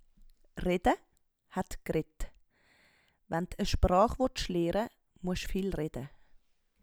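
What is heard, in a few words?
"rede", Swiss German; Wortschatz Kapitel 0; Hauptwortschatz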